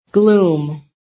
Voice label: "GLOOM", american; PHONETIC